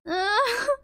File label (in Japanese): ヘタレボイス; Flashpoint Ultimate 13; TheHouse